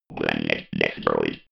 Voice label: robot voice planet destroyed